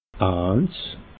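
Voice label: "eins", Alsatian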